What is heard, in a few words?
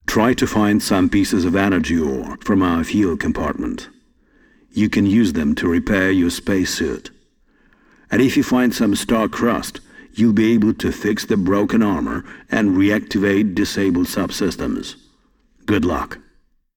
Voice Dialog; we are the dwarves gamerip 2016